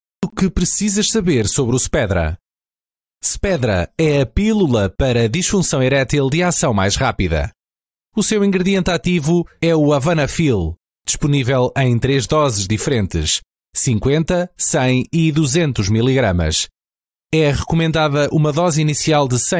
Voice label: 葡萄牙语男, 外语; 小语种

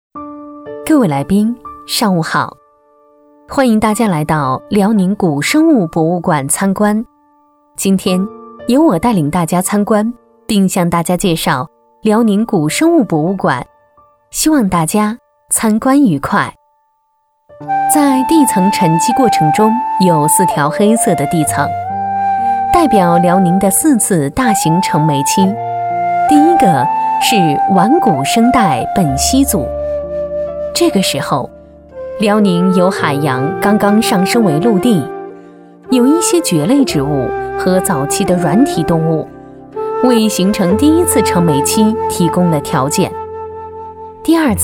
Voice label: 语音导游 古生物博物馆介绍

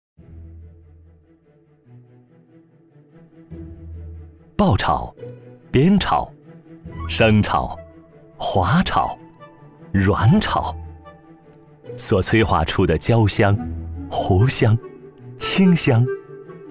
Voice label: 纪录片; 炒锅; 舌尖

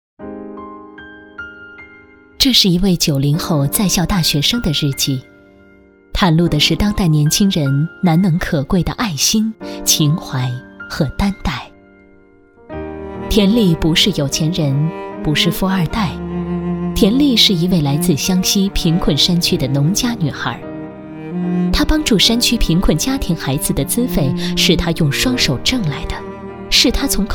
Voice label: 专题 人物 大学生的助学梦 温情, 女国

